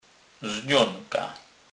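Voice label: "znonka", Polish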